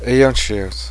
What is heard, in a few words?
hurting, Cheyenne